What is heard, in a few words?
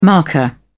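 "marker", English, British English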